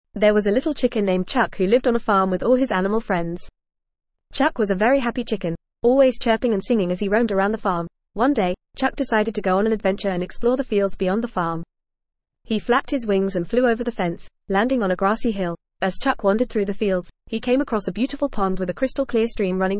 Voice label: ESL Short Stories for Kids FAST reading; Chuck The Chirping Chicken